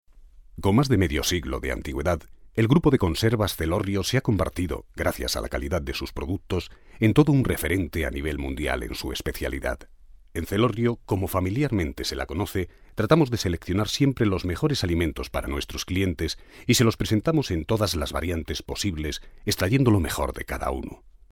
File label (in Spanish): corporativo